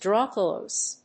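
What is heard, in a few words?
"Draw close", English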